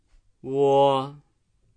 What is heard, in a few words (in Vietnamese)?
"uo", tieng trung; nguyên âm